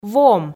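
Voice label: "вом", Komi, female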